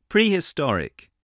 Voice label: "prehistoric", voice, spelling